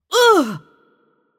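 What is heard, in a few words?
Arabic AttackHeavy; bloom minigame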